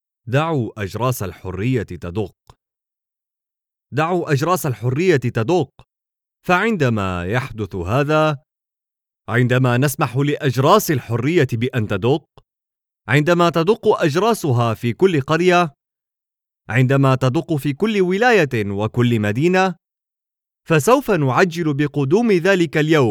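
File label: Arabic male Documentary; voice over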